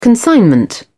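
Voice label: "consignment", English, British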